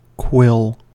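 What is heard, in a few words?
"quill", US English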